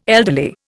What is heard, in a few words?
"elderly", Indian